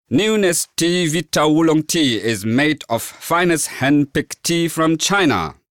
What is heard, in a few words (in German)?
chinesisch; LOFT VOICES